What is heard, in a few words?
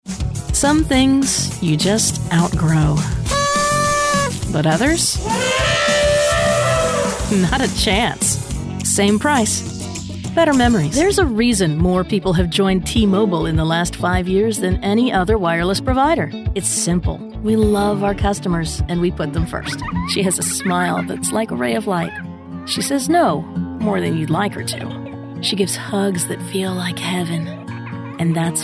Commercial stereo